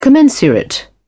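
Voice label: "commensurate", English, British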